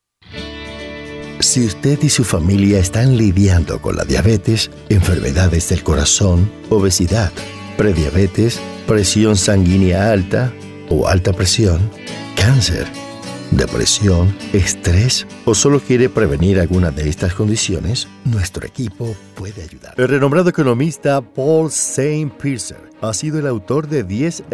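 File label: E-LEARNING SPANISH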